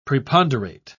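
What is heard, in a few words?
"preponderate", British English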